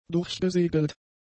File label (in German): "durchgesegelt", German; adjectives